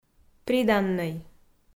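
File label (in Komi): "приданнӧй" Komi, female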